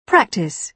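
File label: "practise", British English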